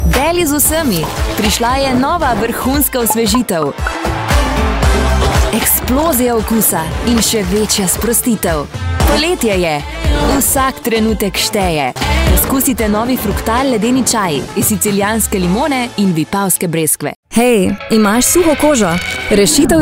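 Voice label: commercial voicereel slovenian female voice over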